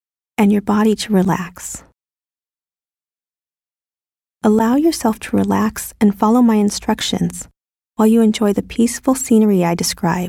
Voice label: Guided Visual Imagery Beach and Tropical Rainforest